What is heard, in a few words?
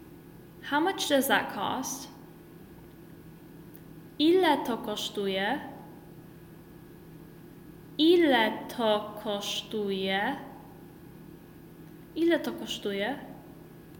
How much does that cost, Polish